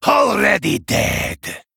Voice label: Male Broken Attack